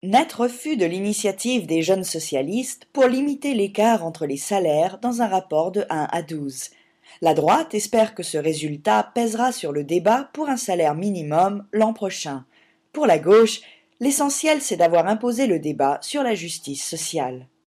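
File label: French Swiss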